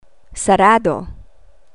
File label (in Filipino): "sarado", Tagalog